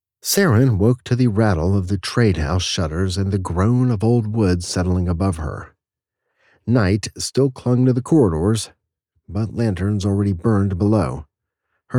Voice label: Fantasy 3rd Person American Male Multiple Characters